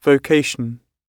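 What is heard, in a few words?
"vocation", British English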